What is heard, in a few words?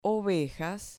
"ovejas", Spanish